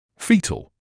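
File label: "foetal", British English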